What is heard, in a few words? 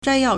"zhai1yao4", Chinese